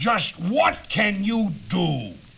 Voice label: "just what can you do", male; questions